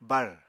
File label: "bár", Apatani